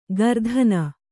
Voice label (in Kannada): "ಗರ್ಧನ", Kannada